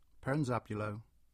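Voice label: "Perranzabuloe" English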